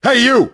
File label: "corporal", grunt; svencoop; st allies; nimble grunt